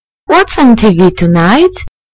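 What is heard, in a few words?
"whats on", British English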